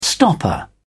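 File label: "stopper", English, British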